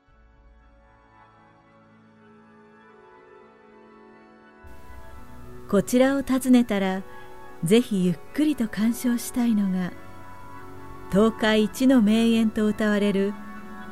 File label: narration